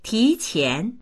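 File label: "ti2qian2", Mandarin Chinese; 提前; 前倒しする、繰り上げる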